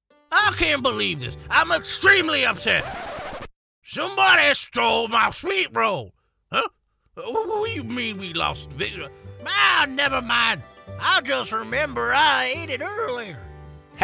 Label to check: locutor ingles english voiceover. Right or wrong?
Wrong